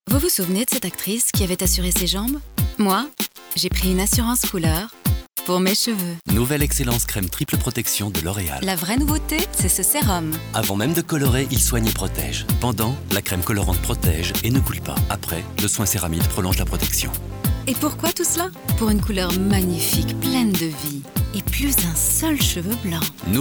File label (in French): Pub TV Voix; Excellence; Andy Mc Dowell; Publicité